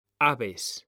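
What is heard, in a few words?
"aves", Spanish, male; mekanta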